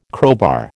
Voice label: "crowbar", American